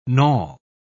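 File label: "knawel", British English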